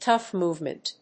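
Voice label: "Tough movement", English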